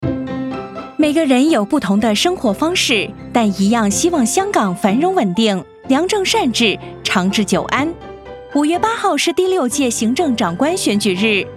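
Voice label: Radio, Putonghua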